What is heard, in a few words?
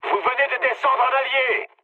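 player killed ally, mood low, French; War Thunder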